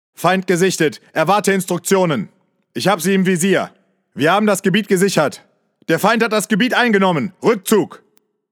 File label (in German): Battlechatter ruhig